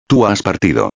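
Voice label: "tu has partido", Spanish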